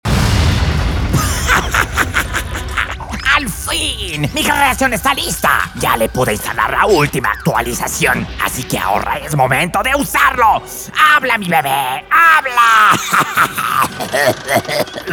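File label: comercial, Mexican Spanish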